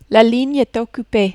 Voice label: "la ligne est occupee", French